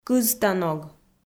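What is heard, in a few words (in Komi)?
"кызта ног", Komi, female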